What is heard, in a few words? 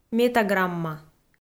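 "метаграмма" Komi, female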